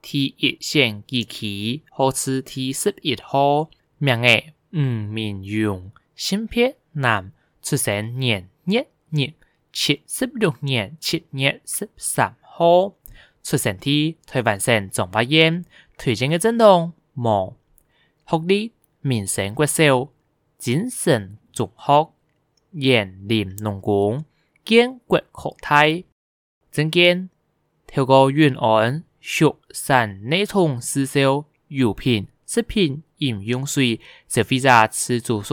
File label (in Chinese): 客語; 彰化縣; 有聲公報; 縣議員; 第一選區